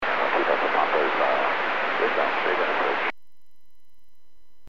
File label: Aviation 122.7; KSTE